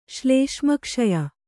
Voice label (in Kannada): "ಶ್ಲೇಷ್ಮಕ್ಷಯ", Kannada